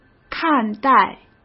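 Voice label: "看待", Mandarin Chinese; chinese-flashcards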